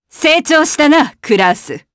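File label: vox Japanese; Demia; kingsraid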